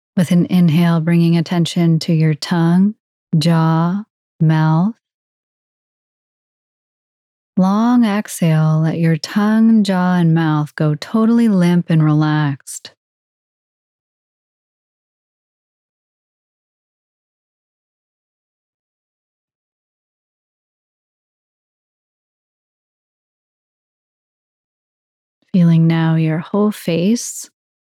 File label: Full Body Relaxation; BODY-SCAN; Oura; Sleep